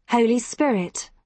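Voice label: "holy spirit", British English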